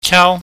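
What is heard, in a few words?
"chaau", Cantonese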